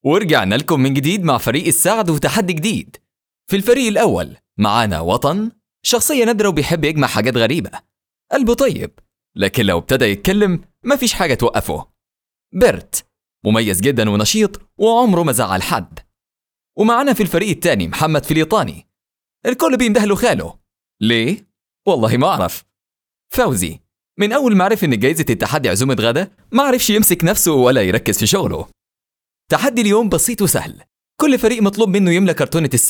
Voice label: Egyptian Accent; AD